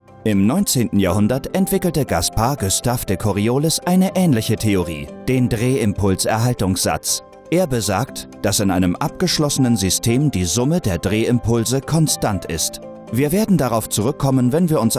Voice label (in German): Erklaerfilm werblich; Brooks Instruments